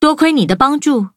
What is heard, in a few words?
levelup zh-hant; cookie run